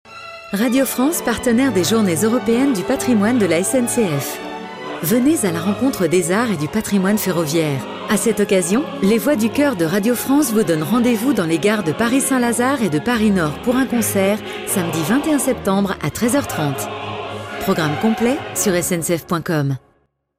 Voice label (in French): radio, comedienne voix off; france